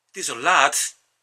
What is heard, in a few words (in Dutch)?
limburgs